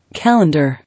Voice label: "Calendar", English